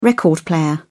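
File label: "record player", British English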